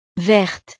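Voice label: "verte", French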